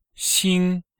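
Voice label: "xing1", Chinese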